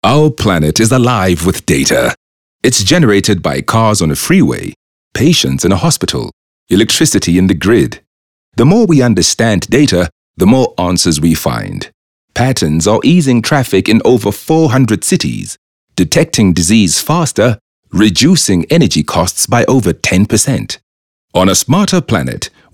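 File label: English Corporate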